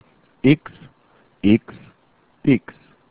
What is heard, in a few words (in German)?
"x", German alphabet